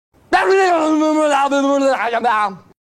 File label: Gibberish Sound